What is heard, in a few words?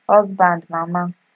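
"husband mama", Nigerian Pidgin, female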